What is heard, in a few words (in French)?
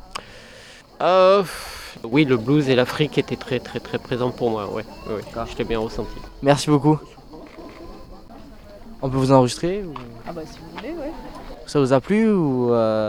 micro-trottoir concert; concert deltas 17 juil 2014